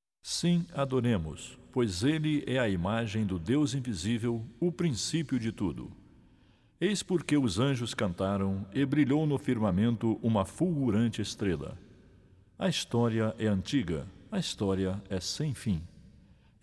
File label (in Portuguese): Narração